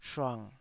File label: "shuang3", taiwanese